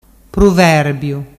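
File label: "pruverbiu", Ligurian dialect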